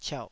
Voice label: "ciauh3", taiwanese